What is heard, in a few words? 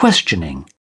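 "questioning", British English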